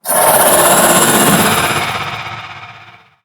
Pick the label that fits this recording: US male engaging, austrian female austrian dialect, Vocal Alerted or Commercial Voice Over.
Vocal Alerted